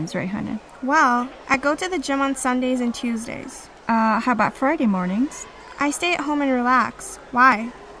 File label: english conversation